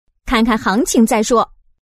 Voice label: Look, sound woman